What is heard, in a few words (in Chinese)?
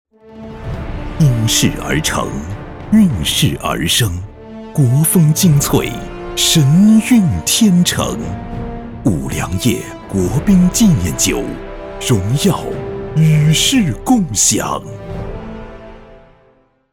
样音大气浑厚广告; 五粮液